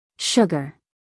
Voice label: "sugar", us female; 1000 hours

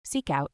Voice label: "seekout" us female; everyone-can-use-english

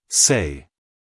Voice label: "say", us male

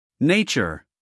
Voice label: "nature", US male; everyone-can-use-english

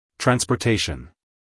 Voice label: "transportation", us male

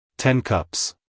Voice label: "ten cups" us male; everyone-can-use-english

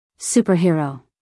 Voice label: "superhero", us female; everyone-can-use-english

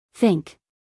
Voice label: "think", us female

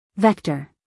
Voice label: "vector", us female; 1000 hours

